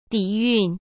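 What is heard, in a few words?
"底蕴", Mandarin Chinese; hsk; chinese flashcards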